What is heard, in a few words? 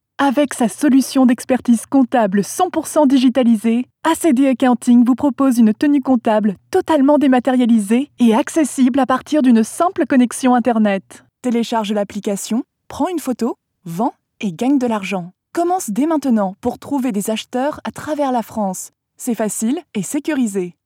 fr promo